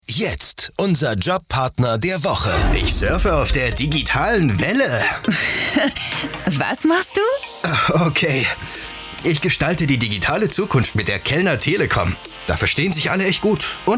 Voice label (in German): Spot; Telecom